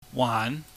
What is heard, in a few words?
"waan", Chinese